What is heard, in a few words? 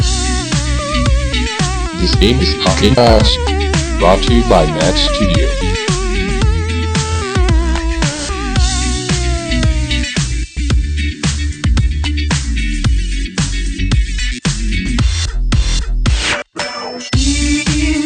chat voice